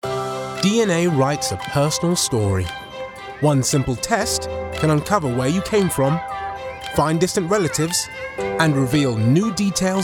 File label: male English compilation